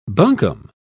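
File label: "buncombe", British English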